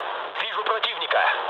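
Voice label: Russian enemy detected mood low, speech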